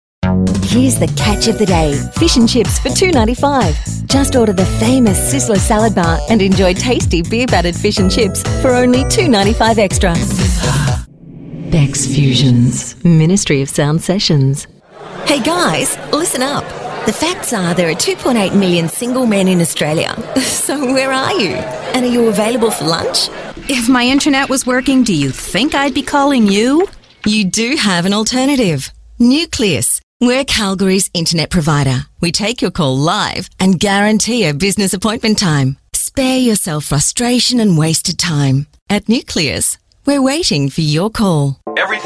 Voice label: AU English Voice

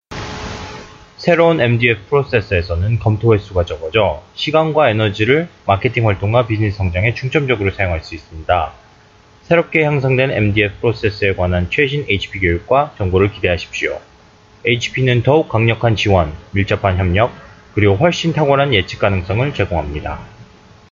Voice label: Korean Male

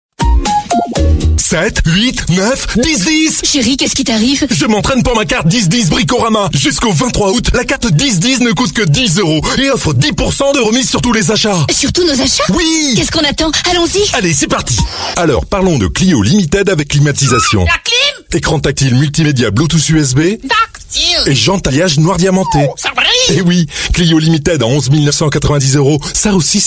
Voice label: French SPOT TV; NonOfficiel

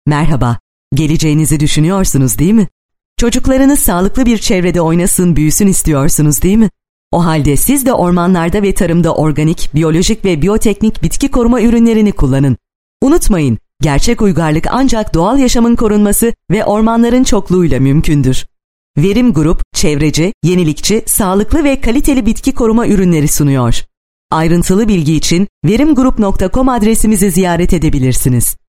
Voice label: Turkish Female

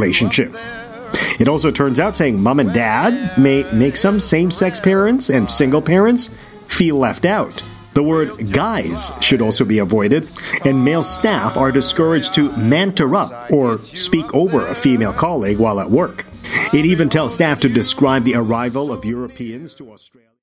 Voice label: Asian English Words; Qantas

